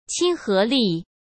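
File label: "亲和力", Mandarin Chinese; chinese-flashcards; hsk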